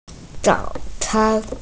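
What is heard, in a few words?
"zao3can1", Mandarin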